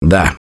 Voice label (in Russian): Yes, left4dead2 russian, Survivor Voice; Gambler